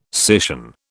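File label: "scission", British English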